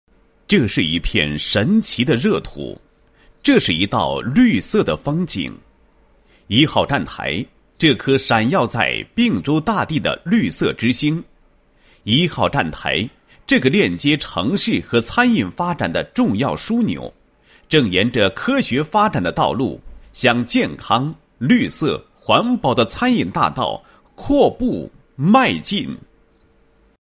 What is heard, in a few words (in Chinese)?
男 宣传广告 餐饮宣传, 配音; 壹号站台